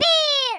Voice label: "FIREBALL", Greek; Worms Armageddon

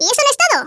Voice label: first blood, Hispanic; Worms Armageddon

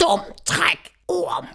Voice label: "You'll regret that", Danish; Worms Armageddon; Danish-Pyrus

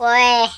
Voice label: "oh dear", Finnish; Worms Armageddon

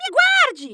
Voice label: "I'll get you", Portuguese; Worms Armageddon

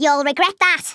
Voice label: YOU'LL REGRET THAT, Scouser

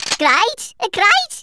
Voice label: "collect", Brummie; Worms Armageddon

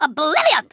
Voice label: "brilliant", Kamikaze; Worms Armageddon

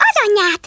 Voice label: "grenade", Hungarian; Worms Armageddon

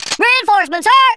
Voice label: collect, Drill Sergeant; Worms Armageddon

